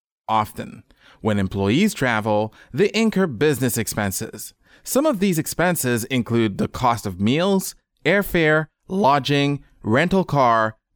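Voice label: Creole French Haiti, male, voice over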